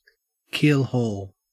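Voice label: "keelhaul", Australian English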